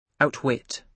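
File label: "outwit", British English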